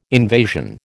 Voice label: "invasion", American